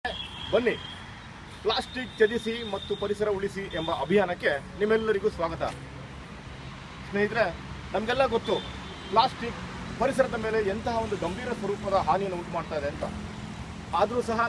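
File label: KANNADA male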